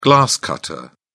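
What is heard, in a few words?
"glass-cutter", British English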